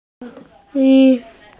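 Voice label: "pencil", Mandarin, hearing-impaired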